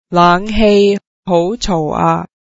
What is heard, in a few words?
the air conditioner is too noisy, cantonese